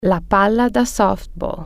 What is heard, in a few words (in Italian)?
"softball", Italian